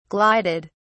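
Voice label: "glided", British English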